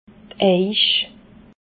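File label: "eich", Alsatian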